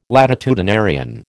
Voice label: "latitudinarian", American